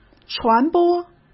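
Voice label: "传播", Mandarin; chinese flashcards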